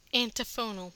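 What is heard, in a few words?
"antiphonal", American English; pronunciations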